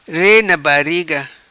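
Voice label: "Re Na Bariga", patua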